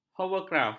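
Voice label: "hoverkraf", Malay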